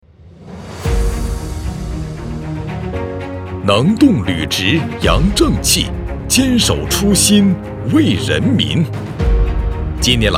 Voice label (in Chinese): 男 检察院宣传片 大气 力度 坚定 豪迈